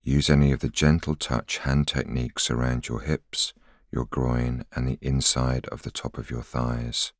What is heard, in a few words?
voiceover